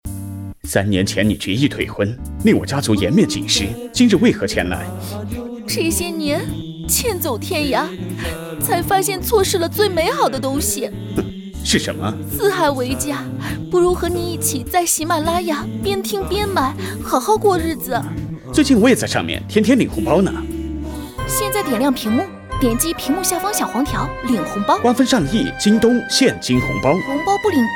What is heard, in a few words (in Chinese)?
广告文案